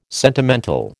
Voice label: "sentimental", American